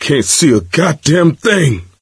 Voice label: misc directional, coach, survivor voice

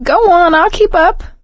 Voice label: lead on, survivor voice

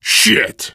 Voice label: reaction negative, biker voice; source engine; left 4 dead 2; survivor

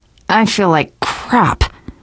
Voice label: going to die light, teen girl; voice; source engine; left 4 dead 2; survivor

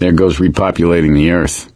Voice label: survivor mourn, survivor voice; Left 4 Dead 2; Source Engine; video games; gambler

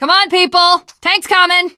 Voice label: opening door, teengirl; video game; Left 4 Dead; Source Engine; survivor

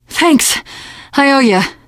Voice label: thanks, teen girl; survivor voice

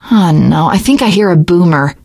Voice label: heard boomer, teengirl; survivor voice

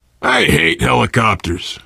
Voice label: world hospital, player survivor voice biker

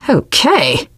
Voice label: nice job, teengirl; survivor voice

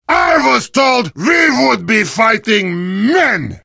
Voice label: heavy taunts; video games; source engine; tf

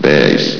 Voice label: "base", vox; Source Engine; bshift

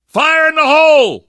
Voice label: grenade, survivor

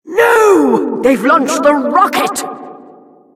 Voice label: announcer rocket launch

